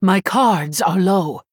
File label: Female Human Low Cards